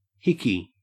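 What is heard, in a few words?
"hickey", Australian English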